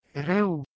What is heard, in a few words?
"h1reug" PIE